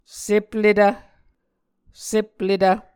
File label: "sepletv", Muscogee